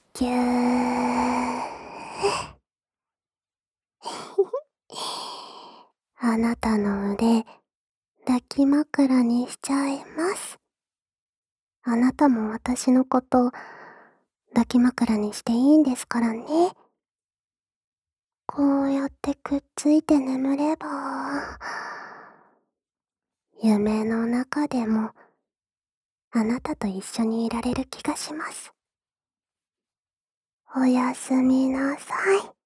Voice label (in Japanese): 添い寝囁きおやすみボイス